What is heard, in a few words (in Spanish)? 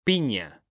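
"PIÑA", Spanish; mekanta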